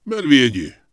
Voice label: Night Elf Male Official NPC Greeting; wowpreklad; czwow